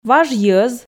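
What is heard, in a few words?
"важ йӧз", Komi, female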